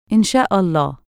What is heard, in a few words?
"in shaa Allah", Egyptian Arabic